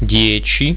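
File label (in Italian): "dieci", Italian; getal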